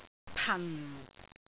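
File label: "pan4", Cantonese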